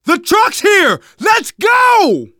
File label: arrive truck initial, survivor voice manager; Left 4 Dead 2; left4dead2 dlc3